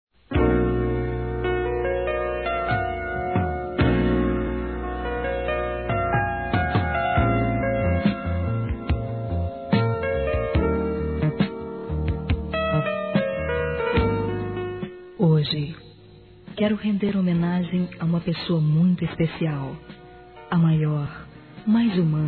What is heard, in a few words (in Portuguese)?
ANIV. MÃE, Telemensagens; Aniversários; Aniv Familiar